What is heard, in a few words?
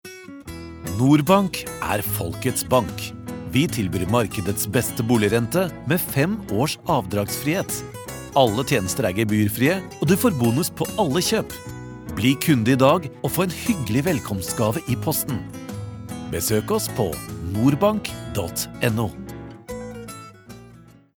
norwegian commercial